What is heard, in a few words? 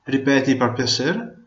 say again, venetian